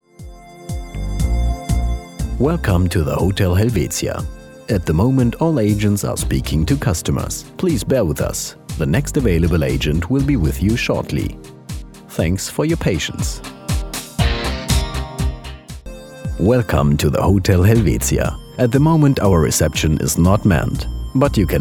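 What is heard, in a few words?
english interactive voice response; professioneller zweisprachiger Sprecher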